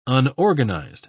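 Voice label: "unorganized", British English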